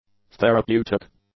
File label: "therapeutic", English; adjectives